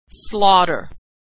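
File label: "slaughter", American English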